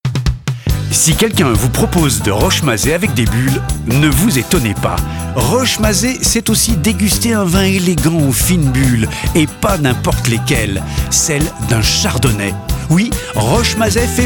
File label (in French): Voix Off Energique Chaleureuse; ROCHEMAZET